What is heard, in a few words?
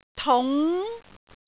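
"tung2", Cantonese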